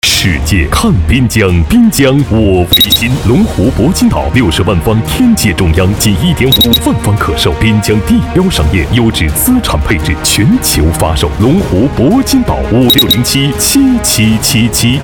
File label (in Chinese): 男国 广告 地产 激情; 龙湖铂金岛